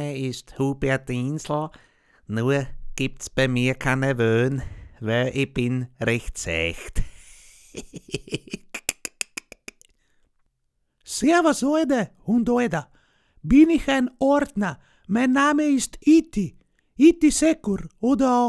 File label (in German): Stimme Karikaturen